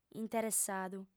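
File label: "interessadu", female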